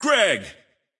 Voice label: intense